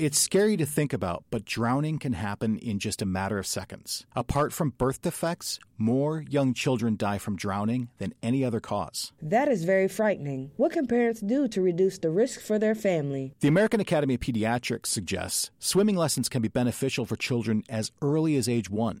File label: Water Safety Promo